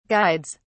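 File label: "guides", British English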